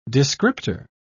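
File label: "descriptor", British English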